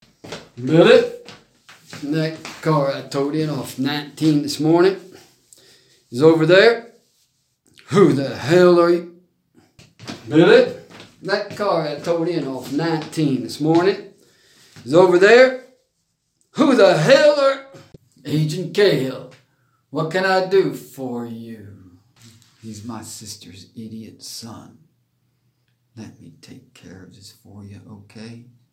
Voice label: US Eng Hilly Billy variations gentle not extreme